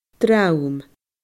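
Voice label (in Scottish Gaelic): "dream", Scottish Gaelic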